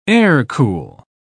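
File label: "air-cool", British English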